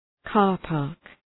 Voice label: "car park", English

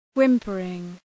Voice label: "whimpering", English

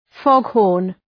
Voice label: "foghorn", English; {‘fɒghɔ:rn}; zë i çjerrë

